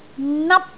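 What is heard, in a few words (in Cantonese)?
"nap1", Cantonese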